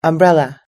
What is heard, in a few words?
"umbrella", us english